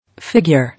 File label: "Figure", English